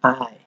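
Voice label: "eye", Nigerian Pidgin, male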